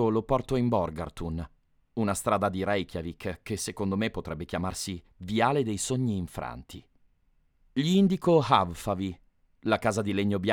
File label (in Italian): Audiobook, Native Italian Voice Over Artist; Benvenuto nel mio Home Studio!